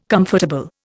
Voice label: "comfortable", Indian

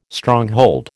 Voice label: "Stronghold", American

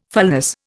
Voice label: "fulness", Indian

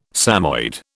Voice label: "Samoyed", British

